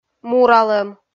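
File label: "муралӧм", Komi, female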